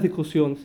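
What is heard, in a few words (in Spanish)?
"discusiones", Cuba, Havanna; Kuba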